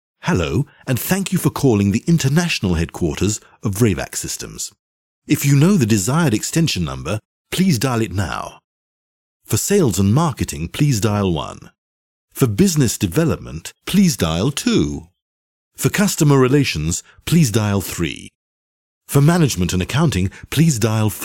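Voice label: english british interactive voice response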